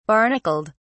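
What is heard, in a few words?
"barnacled", British English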